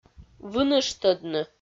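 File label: "выныштӧдны", Komi, female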